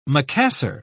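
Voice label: "macassar", British English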